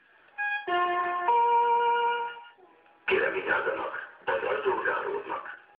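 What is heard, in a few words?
Subway announcement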